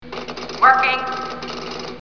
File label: "working", computer